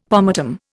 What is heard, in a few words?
"pomatum", Indian; Dictionary